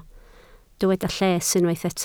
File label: "lles", Welsh